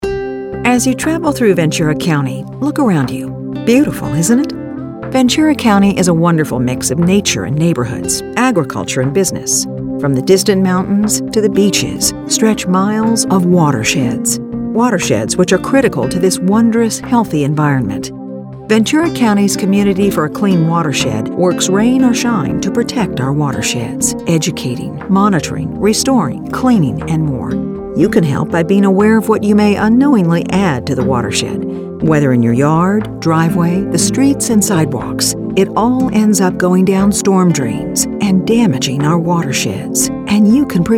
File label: eng radio